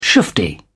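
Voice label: "shufti", British English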